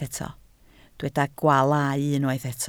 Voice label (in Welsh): "gwaalau", Welsh